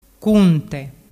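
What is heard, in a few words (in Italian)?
"cunte", Vernazzese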